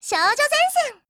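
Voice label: TITLE CALL, Japanese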